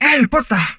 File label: humanoid female; Stellaris; humanoids